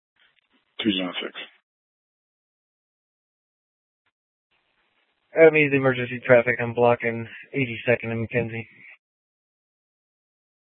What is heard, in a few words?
PD Dispatch